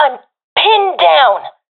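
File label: pinned, professional female; vo; Counter Strike Model Pack; CS:GO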